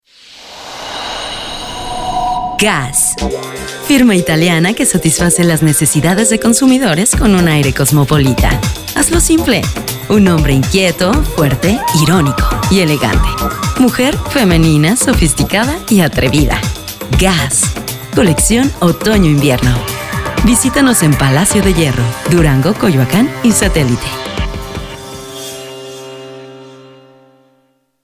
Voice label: Ivr Gas